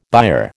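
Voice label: "byre", American English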